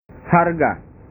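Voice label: "harga", Indonesian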